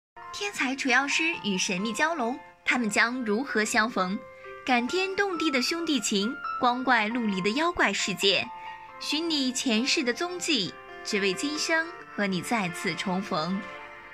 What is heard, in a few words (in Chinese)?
电视预告